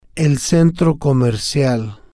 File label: "el Centro Comercial", spanish